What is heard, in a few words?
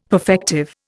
"perfective", Indian; Dictionary